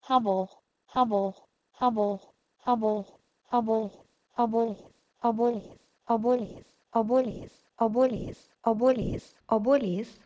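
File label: Proto-Indo-European h2ebol to Lithuanian obuolys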